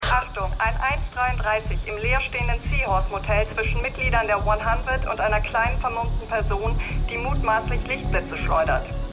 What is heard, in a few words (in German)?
Polizeifunkstimme; Stimmproben; Black Lightning